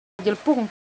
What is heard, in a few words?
Semai Shape